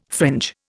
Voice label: "fringe", Indian